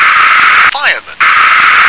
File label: "fireman", English